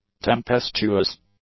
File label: "tempestuous", English; adjectives